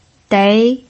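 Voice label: "dei" second tone, Mandarin Chinese pinyin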